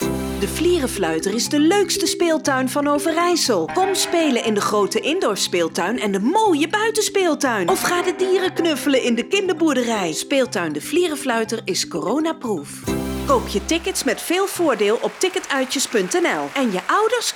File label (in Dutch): Radio; Ticketuitjes; Flierefluiter; Radio OMR